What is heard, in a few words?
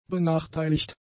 "benachteiligt", German; adjectives